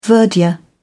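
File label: "verdure", British English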